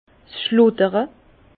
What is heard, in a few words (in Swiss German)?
"schludere", Bas Rhin; vin